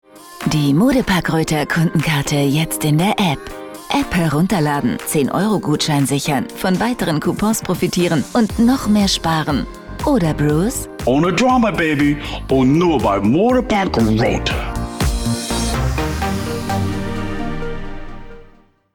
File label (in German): Werbung - Funk; Modepark Röther